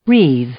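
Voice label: "wreathe", English; verb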